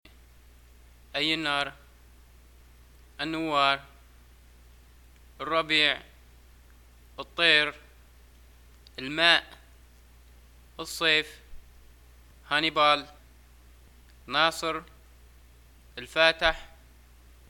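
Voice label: months, Libyan Arabic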